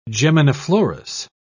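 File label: "geminiflorous", British English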